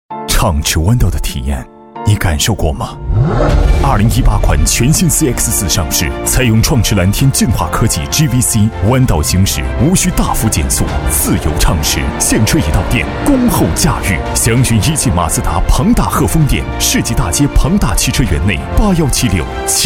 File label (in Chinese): 男 沉稳大气; 马自达